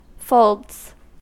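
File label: "folds" US English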